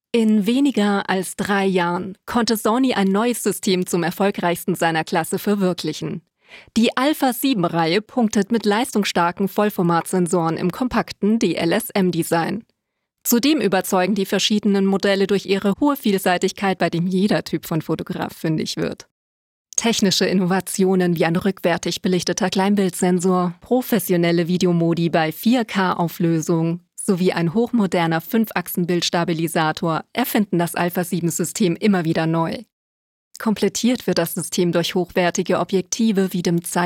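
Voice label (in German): Werbespot, Deutsche Sprecherin, helle, klare Stimme; Sony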